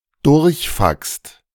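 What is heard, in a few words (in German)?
"durchfaxt", German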